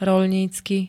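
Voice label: "roľnícky", Slovak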